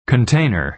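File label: "container" American English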